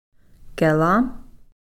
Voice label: "kelam", Cow Creek